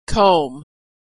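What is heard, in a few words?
comb, American Pronunciation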